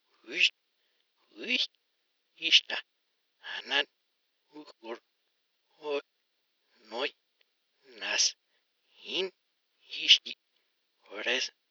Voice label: Counting in Japanese